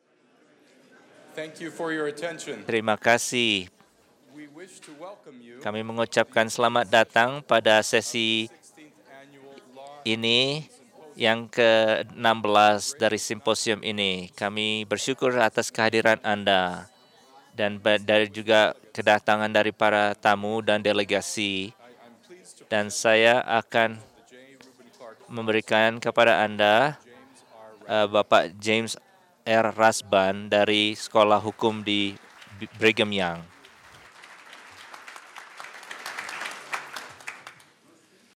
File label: Indonesian; iclrs symposium 2009